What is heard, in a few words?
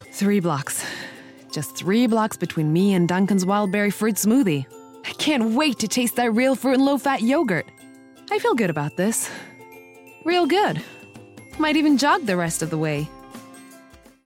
Standard American; Dunkin Donuts